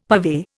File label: "purvey", Indian; Dictionary